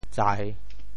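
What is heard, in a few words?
"tsai3", Teochew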